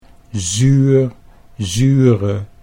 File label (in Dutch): "zuur zure", Dutch